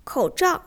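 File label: "kou zhao", Chinese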